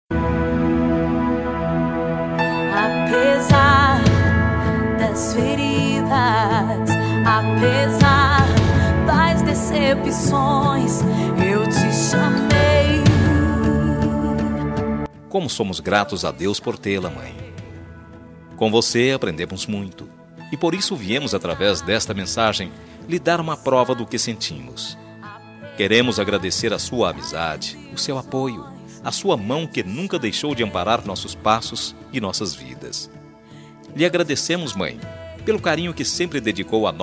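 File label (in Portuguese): Aniversário Evangélico para Mãe Masculino, telemensagem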